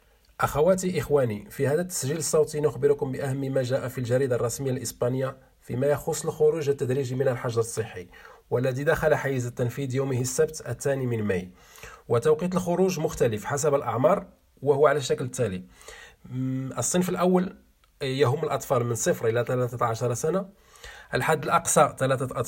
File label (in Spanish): arabe; Tejiendo Redes